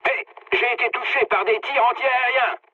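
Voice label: French damaged aa mood med; War Thunder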